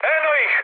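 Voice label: Serbian enemy detected mood med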